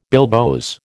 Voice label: "bilboes", American